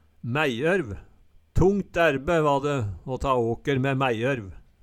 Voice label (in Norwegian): maejeorv, Numedalsmål